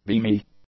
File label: "beamy", English; adjectives